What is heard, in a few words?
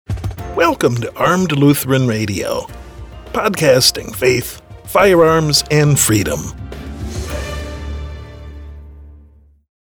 podcast Radio; Armed Lutheran Radio